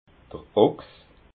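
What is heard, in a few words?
"ochs", Alsatian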